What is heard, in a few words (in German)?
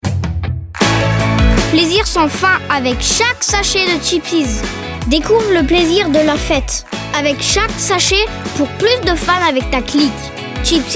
Werbung FR; Chipsies